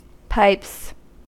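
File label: "pipes", American English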